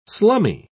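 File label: "slummy", English, British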